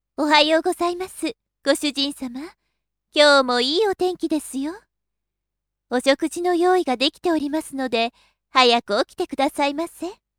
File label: female